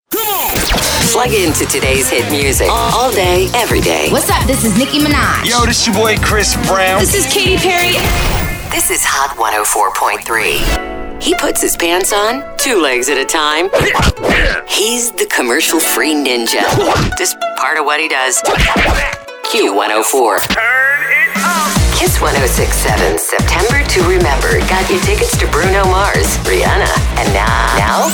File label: Top 40 Imaging; radio